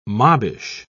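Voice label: "mobbish", British English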